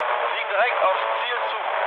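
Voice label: German near waypoint mood low, speech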